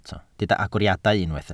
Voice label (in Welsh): "agoriadau", Welsh